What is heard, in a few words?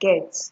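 "get", Nigerian Pidgin, female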